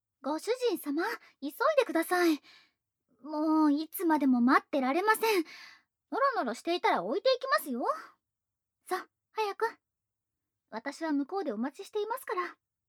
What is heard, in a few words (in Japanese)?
せかしボイス; 催眠前